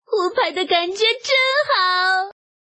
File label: woman hu